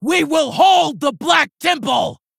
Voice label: Female Fel Orc Play